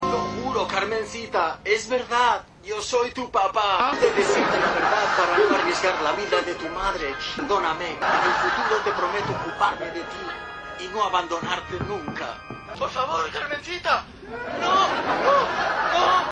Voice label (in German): Telenovela; Die Nanny